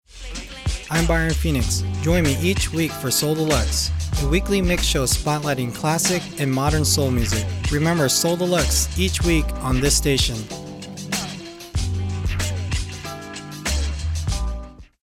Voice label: generic promo